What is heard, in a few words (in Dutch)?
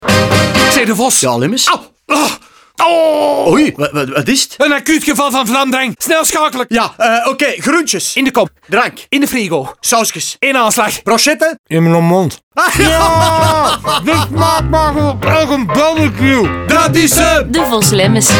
NL Radio; Vlamdrang; Acuut; Devos Lemmens